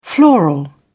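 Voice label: "floral", British English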